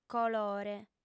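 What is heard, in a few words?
"colore", female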